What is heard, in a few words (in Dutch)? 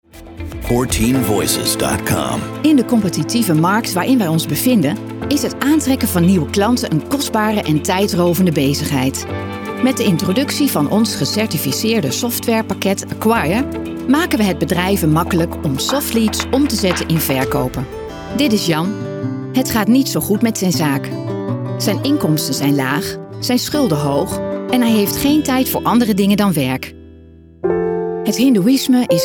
Narratief